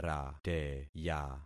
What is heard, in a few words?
"rateya", concat syllables; Affixing experiment